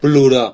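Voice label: "cry", Catalan; gcompris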